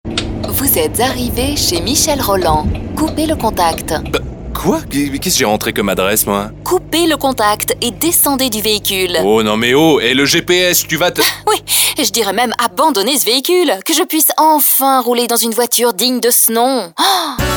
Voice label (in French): radio pub spot